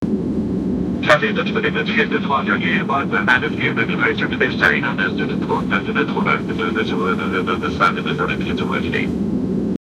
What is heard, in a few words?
pilot announcement, voice over